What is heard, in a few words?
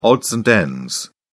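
"odds and ends", English, British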